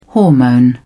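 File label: "hormone", British English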